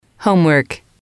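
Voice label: "homework", English, female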